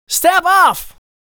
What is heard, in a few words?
"Step Off", vocal phrases; Ultimate Vocals